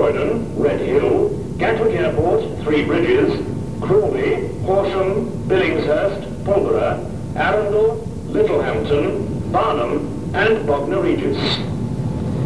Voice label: Clapham Junction pre recorded platform announcement